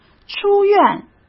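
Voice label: "出院" Mandarin Chinese; hsk; chinese-flashcards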